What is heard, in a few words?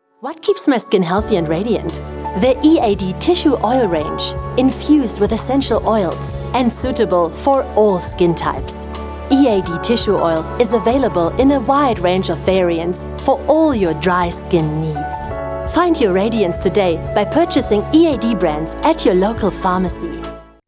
Radio Spot Cosmetics English